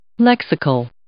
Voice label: "lexical", English